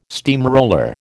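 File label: "steamroller", American English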